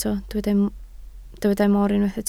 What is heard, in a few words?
"mor", Welsh